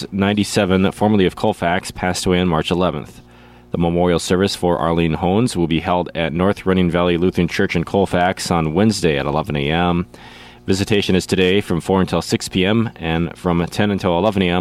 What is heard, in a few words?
Funeral Announcements